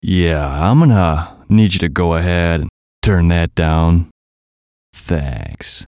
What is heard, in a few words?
VOICE COMICAL; Turn That Down Thanks; Funny Sound; Radio Voice; Blastwave